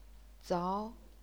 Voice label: "zao2", chinese pinyin